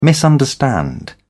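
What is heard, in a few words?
"misunderstand", British English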